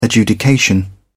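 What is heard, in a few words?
"adjudication", British English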